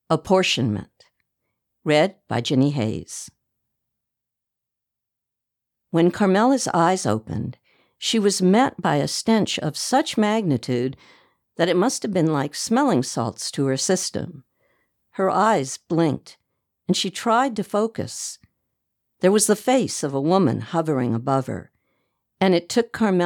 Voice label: audiobook fiction mystery